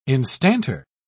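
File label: "instanter", British English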